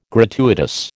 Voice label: "gratuitous", American